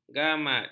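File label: "gamat", Malay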